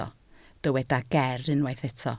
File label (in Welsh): "geer" Welsh